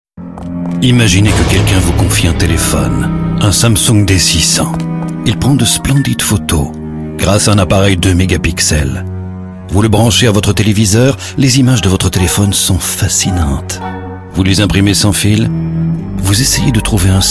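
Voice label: French, male, commercial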